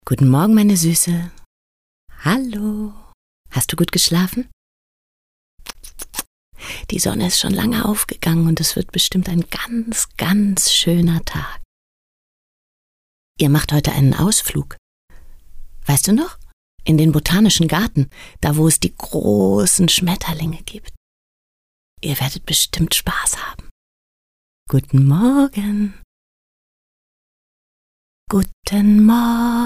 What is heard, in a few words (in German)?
sanftes Wecken; stimme